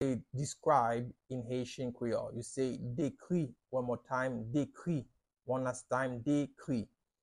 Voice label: Describe in Haitian Creole Dekri pronunciation by a Haitian Creole tutor